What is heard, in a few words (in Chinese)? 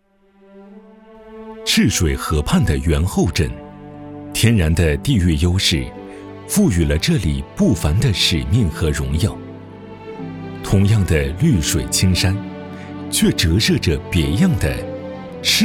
男 专题 红色经典